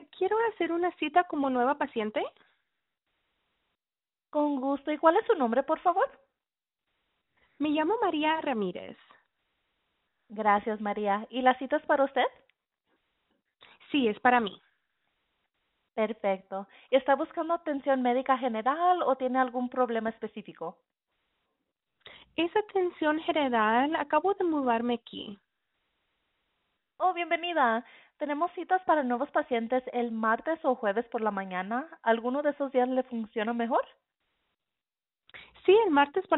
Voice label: Healthcare Scheduling Call Spanish Human; bilingual answering